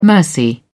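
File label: "mercy", British English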